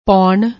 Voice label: "ponn", Punjabi